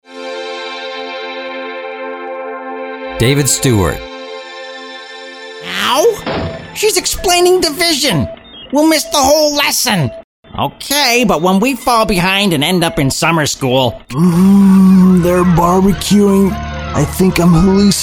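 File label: animation, voiceovers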